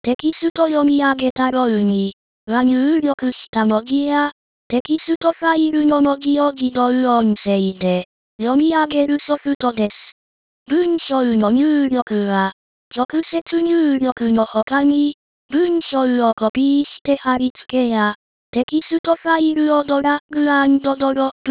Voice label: lady